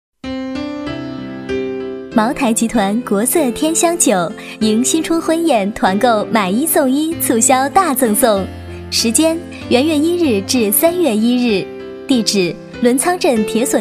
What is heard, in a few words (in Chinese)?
广告 酒类 甜美; 国色天香酒